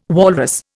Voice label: "walrus", Indian